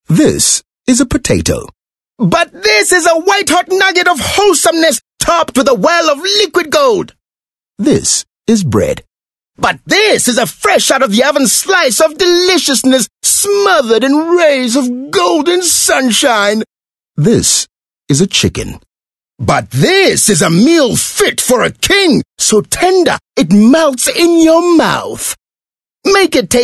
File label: English montage of characters